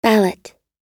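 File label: "ballot", American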